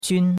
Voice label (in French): "qun1", chinois; Cours-de-Chinois